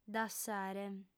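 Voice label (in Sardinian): "dassare", female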